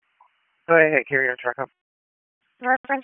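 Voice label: Comm Center Intersystem, radio; Wake; wake p25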